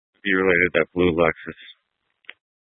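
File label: Tempe Police Department, scanner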